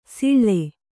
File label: "ಸಿಳ್ಳೆ", Kannada